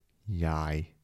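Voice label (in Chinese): 孬-台城-男, 台山话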